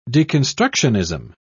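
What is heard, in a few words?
"deconstructionism", British English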